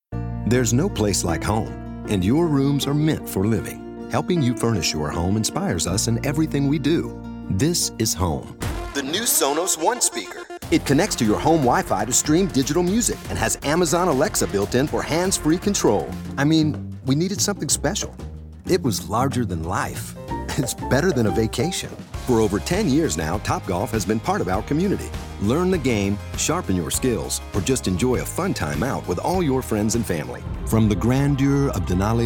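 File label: commercial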